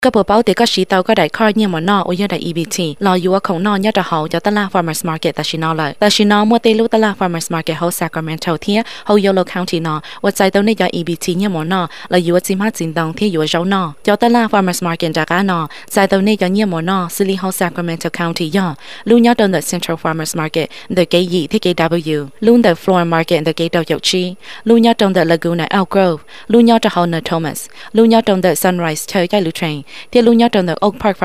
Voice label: Hmong ad on Radio; KJAY